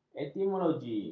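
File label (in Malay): "etimologi", Malay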